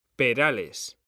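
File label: "perales", Spanish; mekanta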